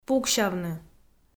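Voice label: "пуксявны", Komi, female; kpv audio dict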